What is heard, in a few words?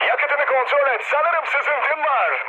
tank damaged, mood med, speech tur; War Thunder